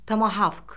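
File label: "tomahavk", Azerbaijani; Orfoqrafiya Lüğəti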